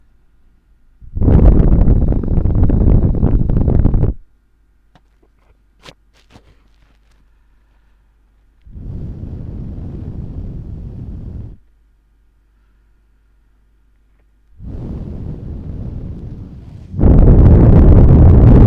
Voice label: popfilter test